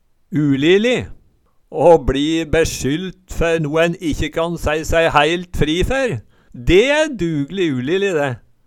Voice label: ulili, Numedalsmål

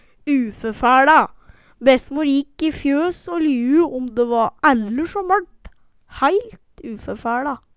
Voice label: ufefæLa, Numedalsmål

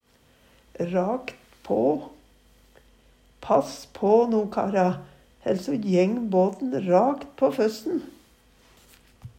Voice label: rakt på, Numedalsmål; Pass på no kara, ell so jæng båten rakt på føssen.

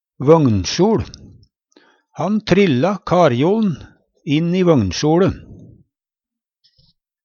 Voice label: "vøgnsjoL", Numedalsmål; Han trilla karjol'n inn i vøgnsjoLe.; Ordklasse: Substantiv inkjekjønn

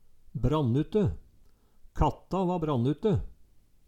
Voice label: brannute, Numedalsmål; Katta va brannute

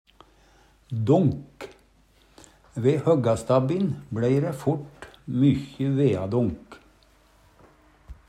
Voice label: "donk", Numedalsmål

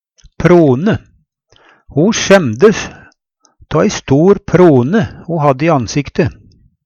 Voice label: prone, Numedalsmål; Ho sjemdes tå ei stor prone ho hadde i ansikte.; Substantiv hokjønn

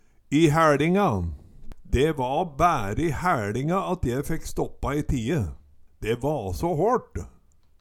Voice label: i haeLinga, Numedalsmål; De va bære i hæLinga at je fekk stoppa i tie, de va så håLt

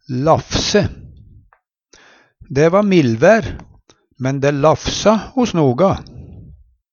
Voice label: "lafse", Numedalsmål; Verb; Vêr og føre

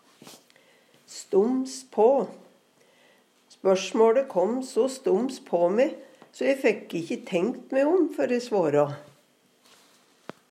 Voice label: stoms på, Numedalsmål; stums på, brått, uventa

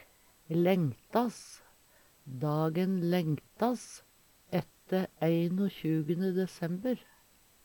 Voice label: "lengtas", Numedalsmål; Verb; Tida (dagen, året, merkedagar)

